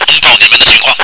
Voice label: reporting in, radio